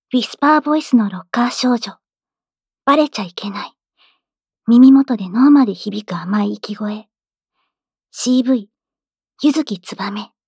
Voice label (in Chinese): 標題朗讀